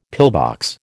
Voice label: "pillbox", American